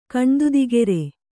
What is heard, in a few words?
"ಕಣ್ದುದಿಗೆರೆ", Kannada